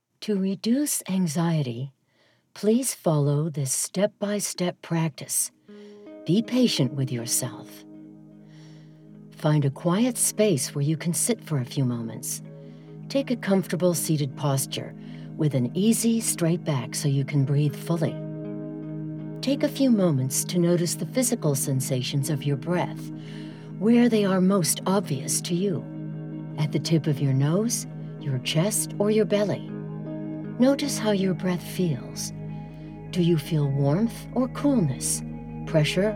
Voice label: Meditation Guide